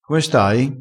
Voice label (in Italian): "d incanto", crespinese